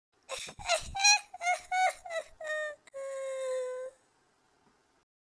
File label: sad, Nagging Wife